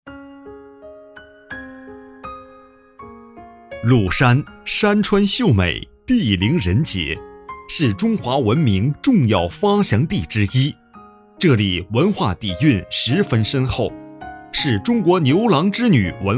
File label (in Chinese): 男-旅游-解说; 鲁山解说